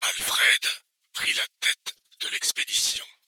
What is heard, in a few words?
whisper